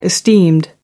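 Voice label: "esteemed", British English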